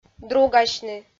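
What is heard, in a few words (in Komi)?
"другасьны", Komi, female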